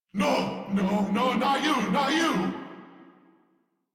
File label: Player Spotted, Battle Barks; Warframe; Corpus VIP B